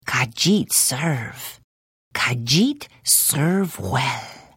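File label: Hello, Khajiit female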